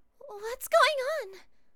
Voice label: worried

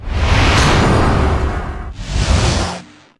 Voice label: witness testimony

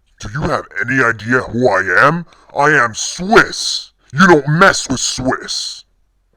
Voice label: threat; swiss

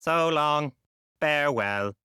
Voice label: so long sad; monodam

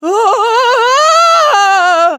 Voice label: scared; teruteru

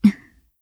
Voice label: surprised; moeka

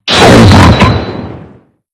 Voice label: demon "hold it"; kristoph

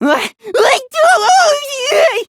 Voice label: confusion

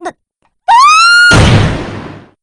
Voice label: dynamic, jp; p4u

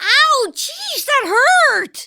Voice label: hurt; chie p4g

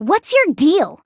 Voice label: what's your deal; aoi

